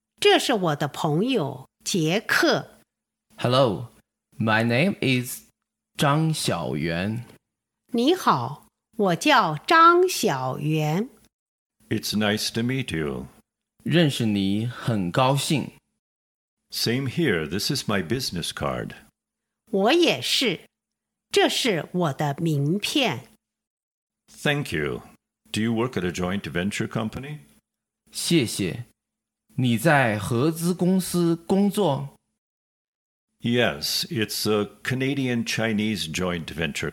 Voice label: Dialog